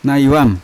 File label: "naivam", Yawa; shoulder; unat